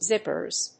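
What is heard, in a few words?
"zippers", English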